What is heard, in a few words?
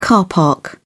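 "car park", English, British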